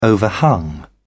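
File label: "overhung", British English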